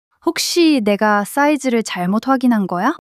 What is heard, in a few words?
fluster